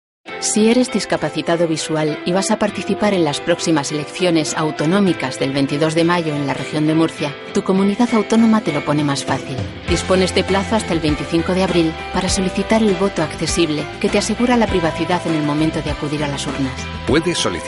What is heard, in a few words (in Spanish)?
comercial